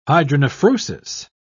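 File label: "hydronephrosis", British English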